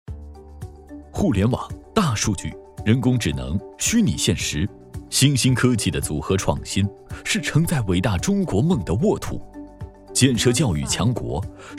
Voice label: 男 教育专题

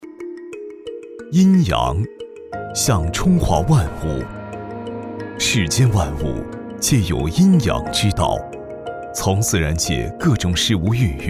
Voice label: 男 记录片; 中华万物

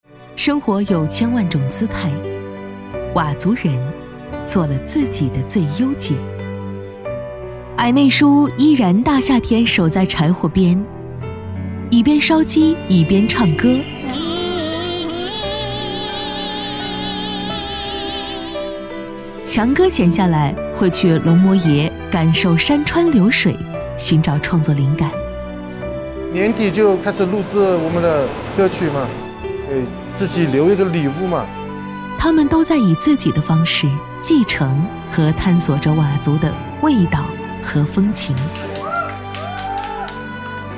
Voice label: 女,纪录片

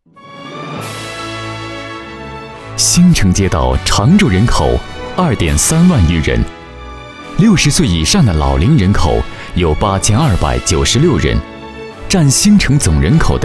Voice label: 男, 积极亲和 专题; 老人社区专题

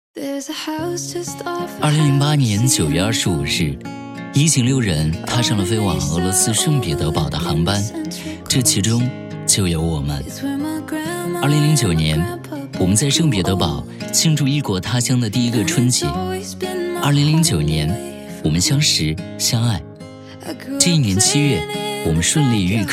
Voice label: 男 旁白 爱情历程

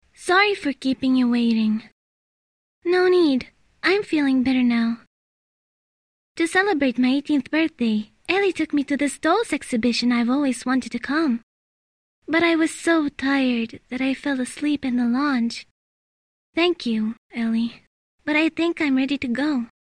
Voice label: 英语 女声角色; 人偶馆绮幻夜; 蒂娜

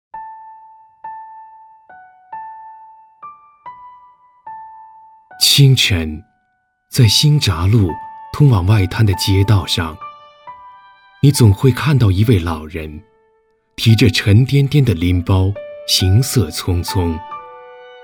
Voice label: 英男 人物专题

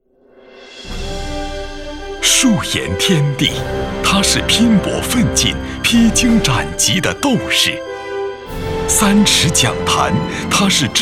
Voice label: 男 颁奖 个人版 教师颁奖 坚定大气 力度年会

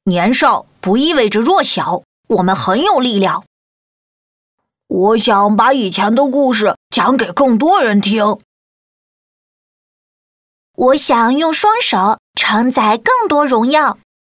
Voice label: 角色男1 男3 女1

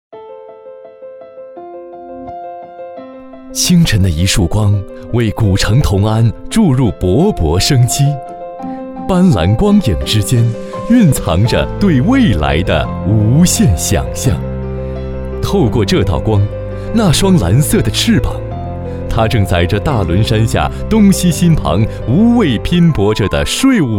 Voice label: 男 中文专题; 同安税务局

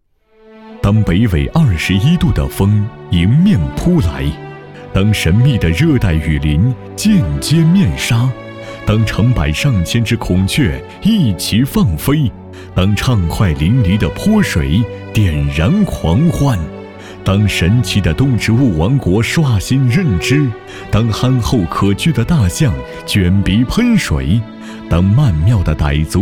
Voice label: 男 宣传

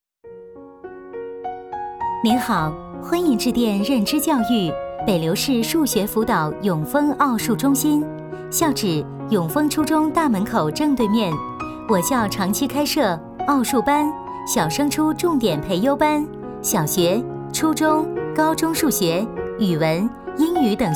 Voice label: 女 彩铃; 北流认知教育

